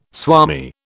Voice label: "swami", British

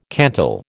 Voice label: "cantal", American

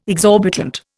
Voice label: "exorbitant", Indian

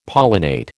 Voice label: "pollinate", American

